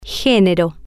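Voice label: "ge-ne-ro", Spanish, female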